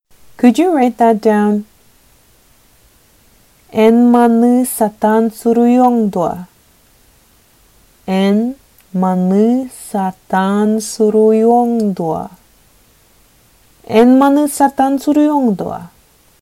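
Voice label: Sakha Could you write that down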